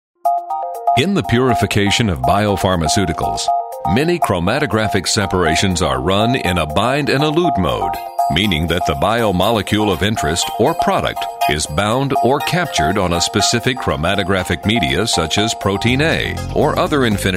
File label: Instructional Industrial